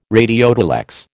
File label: "radiotelex", American